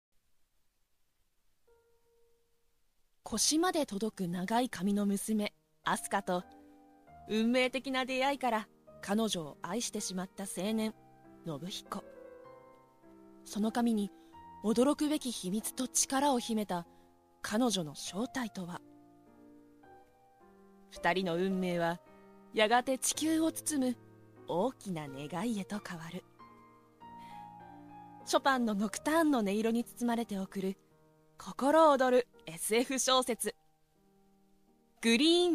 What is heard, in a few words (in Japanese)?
ラジオCMナレーション